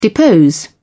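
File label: "depose", English, British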